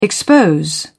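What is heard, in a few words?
"expose", British English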